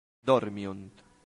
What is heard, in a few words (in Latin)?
"dormiunt", latin; santillana